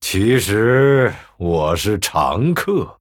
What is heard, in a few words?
Male Night Elf MIRROR GREETINGS, zh